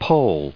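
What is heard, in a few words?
"Poles", us English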